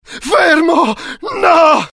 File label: fear, IT; mohaa; dialogue